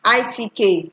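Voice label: "I.T.K", Nigerian Pidgin, female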